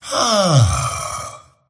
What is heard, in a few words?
happy; dota; dragon knight